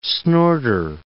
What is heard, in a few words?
"snorter", English